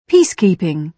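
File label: "peacekeeping", British English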